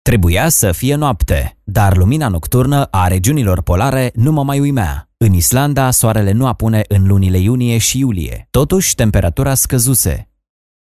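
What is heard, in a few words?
Romanian male audiobook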